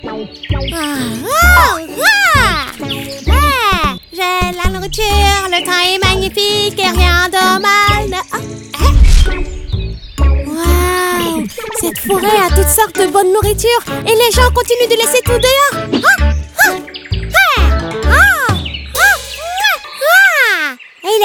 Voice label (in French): voix renard; Boonie Bears